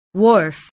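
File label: "wharf", English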